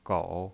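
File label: "gou", Mandarin Chinese, third tone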